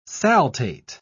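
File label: "saltate", British English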